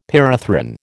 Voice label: "pyrethrin", American

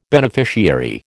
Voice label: "beneficiary", American

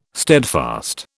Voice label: "steadfast", British

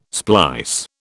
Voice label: "splice", British

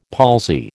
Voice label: "palsy", American

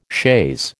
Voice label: "chaise", American

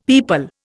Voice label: "pipal", Indian; Dictionary

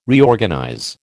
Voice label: "Reorganize", American

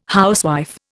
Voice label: "housewife", Indian

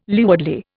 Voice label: "leewardly", Indian

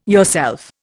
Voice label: "yourself", Indian

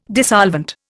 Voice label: "dissolvent", Indian

